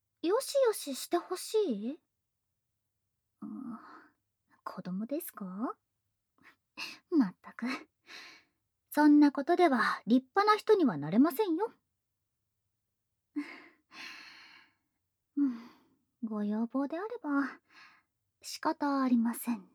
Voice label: 甘やかし ボイス; まりか; 催眠前

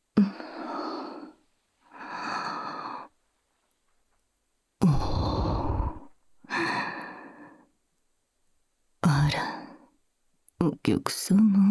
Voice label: 語り掛け; メインキャラ

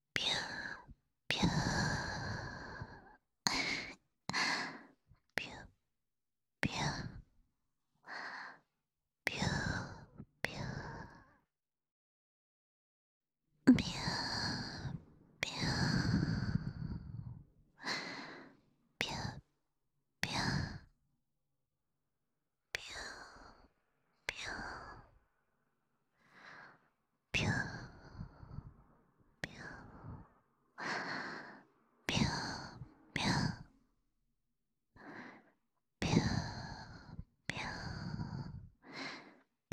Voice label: 囁き淫語連呼; SEあり

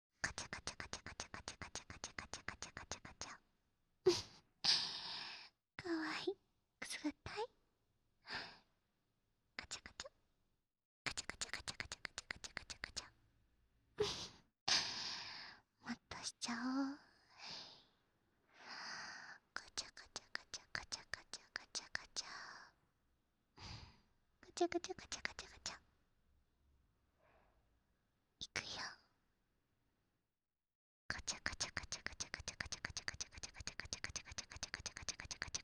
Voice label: 囁き淫語連呼; SEなし

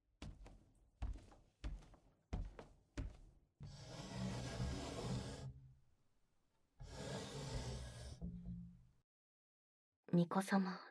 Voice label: 布団の中で 密着 ピロートーク, ASMR; SEあり; 儀式後…布団の中で 密着 ピロートーク

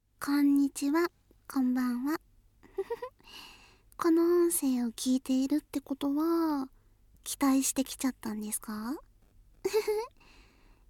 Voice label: 言葉責め

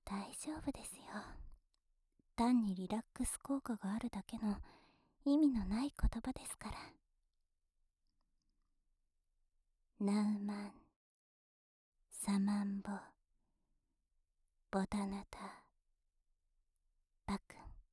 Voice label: 心地よい呪文; 妖艶な黒魔術に身を委ねて快楽堕ちする臨死絶頂脳姦儀式

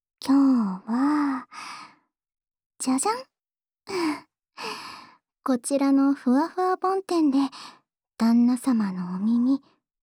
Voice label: 安眠用梵天耳かき 右, ASMR

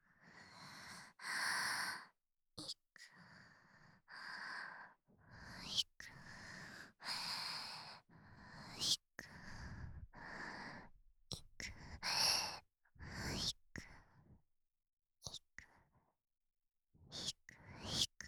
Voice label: 囁き淫語連呼; SEなし

